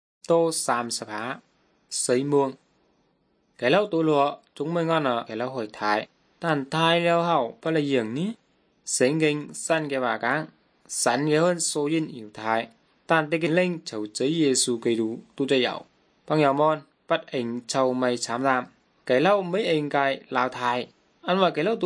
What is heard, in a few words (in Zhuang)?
good news zhuang nanning fushu